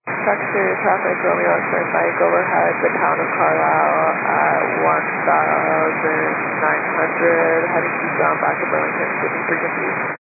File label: 122 925MHz, scanner radio